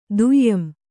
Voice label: "ದುಯಂ", Kannada

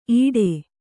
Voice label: "ಇಡೆ", Kannada

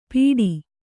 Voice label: "ಪೀಢಿ", Kannada

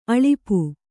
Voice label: "ಅಳುಪ", Kannada

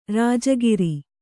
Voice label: "ರಾಜಗೀರೆ", Kannada

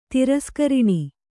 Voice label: "ತಿರಸ್ಕರಣ", Kannada

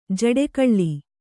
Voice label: "ಜಡೆಗೊಳ್", Kannada

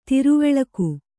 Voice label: "ಧ್ರುವಲೋಕ", Kannada

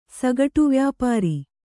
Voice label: "ಸಗಟುವ್ಯಾಪಾರ", Kannada

